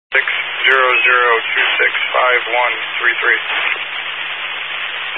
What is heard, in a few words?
Highway Patrol, Phoenix Metro West Area, scanner